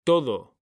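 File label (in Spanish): "todo", Spanish, male; mekanta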